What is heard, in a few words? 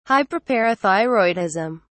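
"hyperparathyroidism", British English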